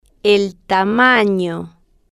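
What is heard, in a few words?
"tamano", spanish, slow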